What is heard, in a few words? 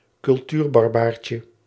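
"cultuurbarbaartje", Dutch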